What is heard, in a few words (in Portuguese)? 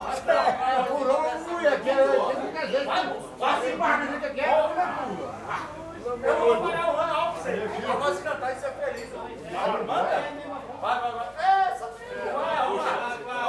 Homens conversando alto no bar em goias; multidao